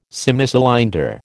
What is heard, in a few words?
"Semicylinder", American